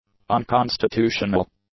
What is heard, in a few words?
"unconstitutional", English; adjectives